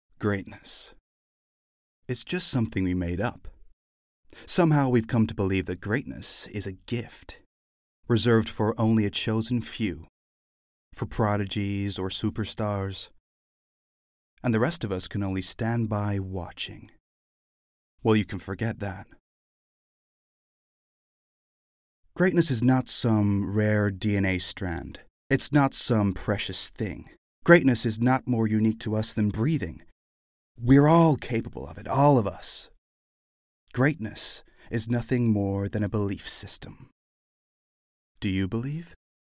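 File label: British English, Commercial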